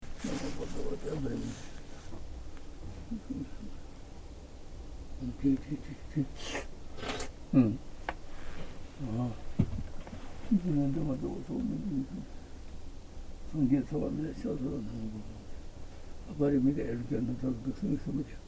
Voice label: quiet blessing, nepal